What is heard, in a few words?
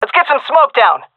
request smoke, vo fem; agents; Source Model Packs; Counter Strike Model Pack; CS2